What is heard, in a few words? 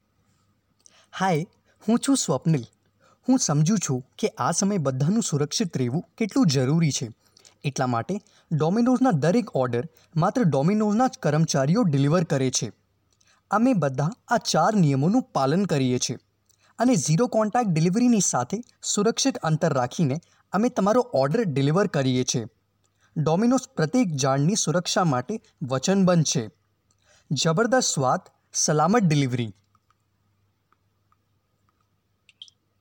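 Gujarati, male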